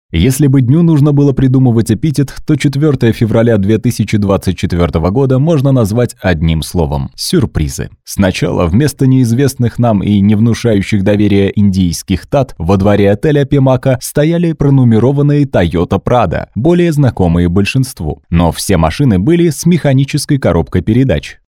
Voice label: fast; демо диктора